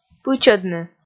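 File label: "пычӧдны", Komi, female; kpv audio dict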